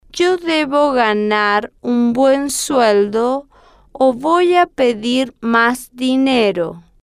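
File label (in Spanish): yo Debo Ganar Un Buen Voy A Pedir Mas Dinero, Spanish, female, slow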